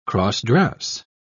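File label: "cross-dress", British English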